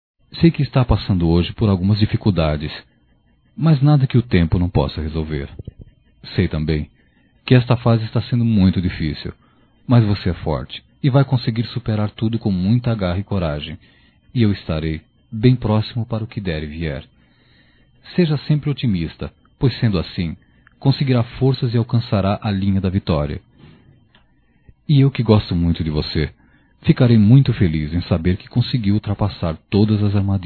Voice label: Otimismo Masculino; Telemensagens 2017

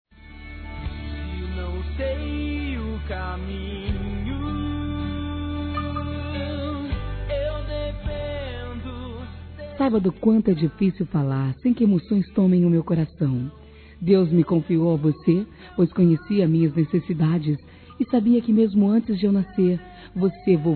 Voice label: Aniversário Avô Feminino; Aniversários; Aniv Familiar

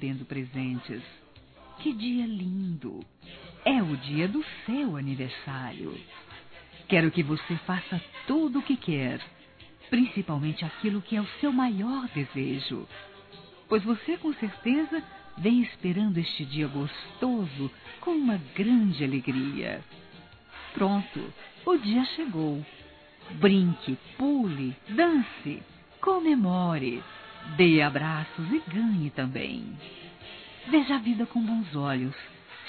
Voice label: Criança Geral Feminino; Aniversários; Aniv Familiar

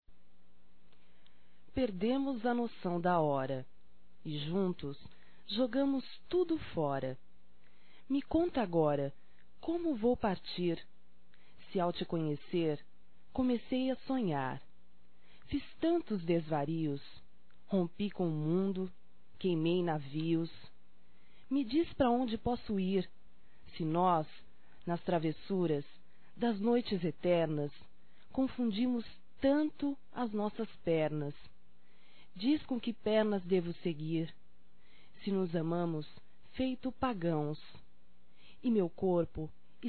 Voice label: Romantica Poesia Feminino; Românticas

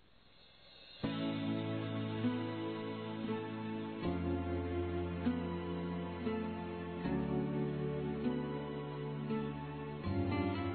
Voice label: NAMORADA M; Aniversários; ANIVERSARIO romantico; masc

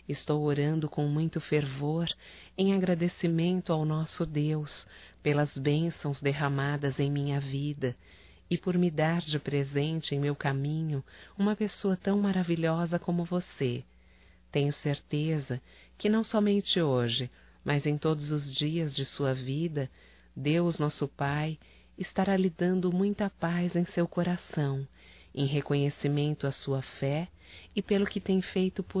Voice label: Aniversário Sogra Evangélico Feminino; Telemensagens; Telemensagens 2017

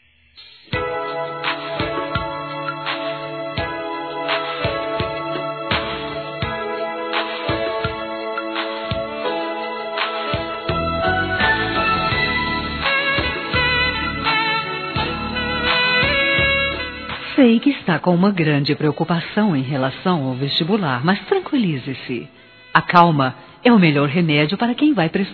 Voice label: Formatura Filha Plural Feminino; Telemensagens; Formaturas; Telemensagens 2017

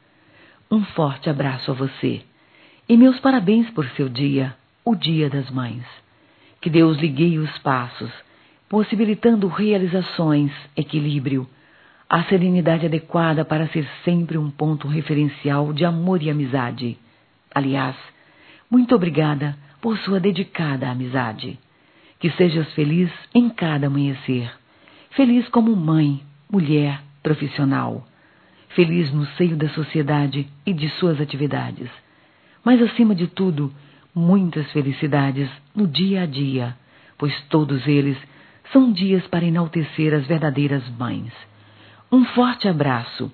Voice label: Amiga p mãe f, Telemensagens, Dia das Maes; Telemensagens 2017

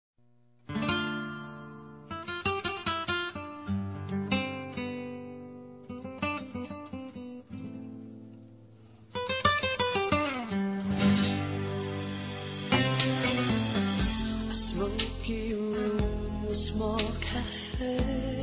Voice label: ROMANTICO M; Aniversários; masc

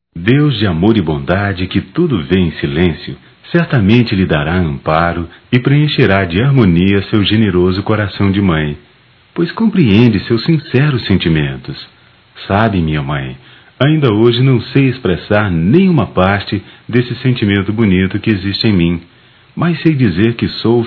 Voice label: Filha para mãe evangelica, masc; Telemensagens 2017; Dia das Maes

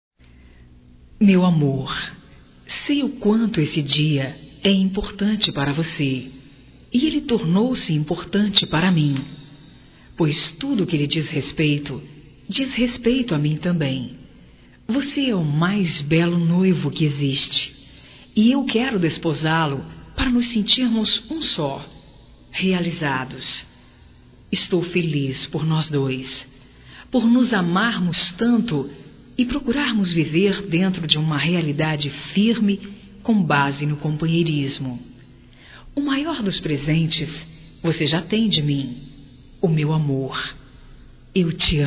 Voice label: Aniv romântico, Telemensagens Aniversarios; Telemensagens 2017

